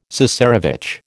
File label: "cesarevitch", American